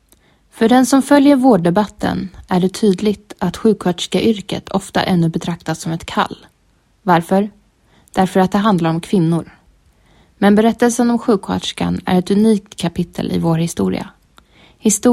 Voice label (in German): Schweden W